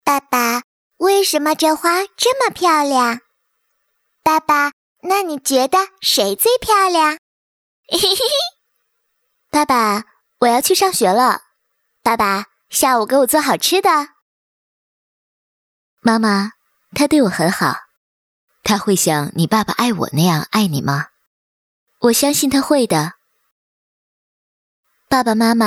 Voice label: 动画 角色 女儿妈妈

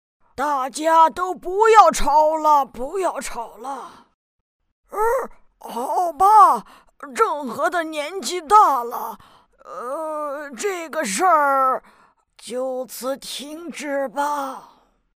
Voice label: 动画 动画片 沧桑老爷爷

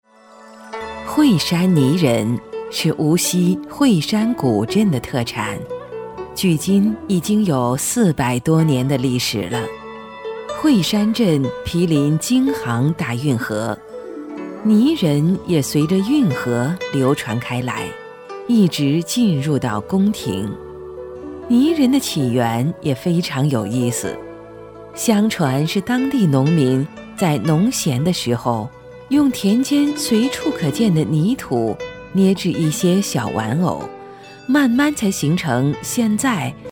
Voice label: 宣传片 旅游 讲述, 女国; 惠山

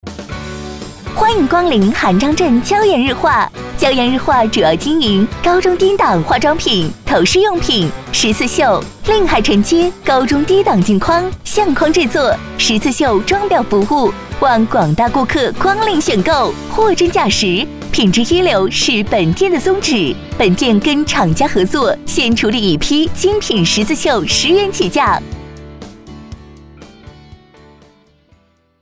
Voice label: 广告 促销 店铺促销 时尚

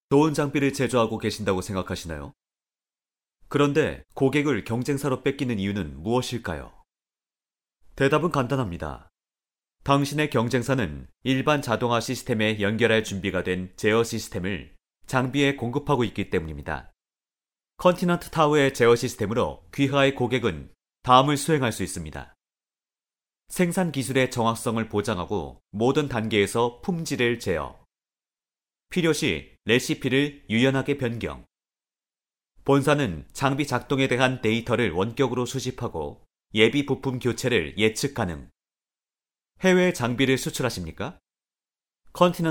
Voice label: 韩语男 外语 小语种 样音 年轻

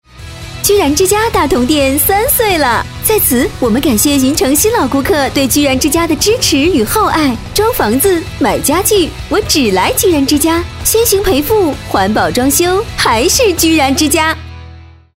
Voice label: 女 广告 促销 甜美; 居然之家

